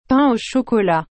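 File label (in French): "pain au chocolat", French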